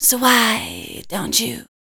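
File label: vocal female, Dance Vocals, spoken words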